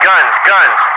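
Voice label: "guns", English speech, Wingman; DCS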